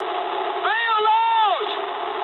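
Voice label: English bailout mood low; War Thunder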